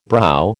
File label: "brow", American